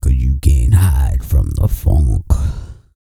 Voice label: E SPEECH, Vocal Planet R&B Dance; BASS CATCH; Spectrasonics